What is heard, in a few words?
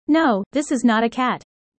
user fast